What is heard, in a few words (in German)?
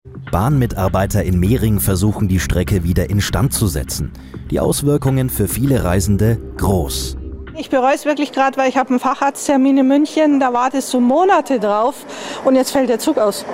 TV Nachrichtenbeitrag